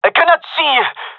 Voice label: blinded, gendermerie male

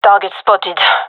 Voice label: sees enemy, gendarmerie fem

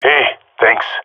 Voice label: thankful, jungle male epic; Counter Strike Model Pack; CS:GO

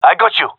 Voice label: covering friend, gendarmerie male; vo

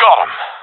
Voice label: i killed enemy, professional; Counter Strike Model Pack; CS:GO

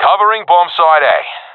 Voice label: guarding bombsite a, professional epic; vo; Counter Strike Model Pack; CS:GO

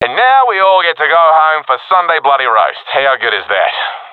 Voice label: round won no casualities, professional epic; vo; Counter Strike Model Pack; CS:GO

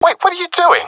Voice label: takingfire friendly, professional epic, agents; vo; Source Model Packs; Counter Strike Model Pack; CS2

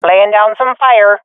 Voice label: throwing molotov, swat fem; Counter Strike Model Pack; CS:GO

